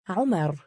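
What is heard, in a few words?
"3umar" male, Arabic; عُمَرُ; ウマル; （とても）長生きする（人）、長寿の（人）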